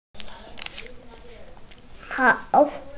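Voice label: "heng2", Mandarin Chinese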